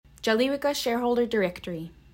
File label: Yugtun Calivika, pronunciation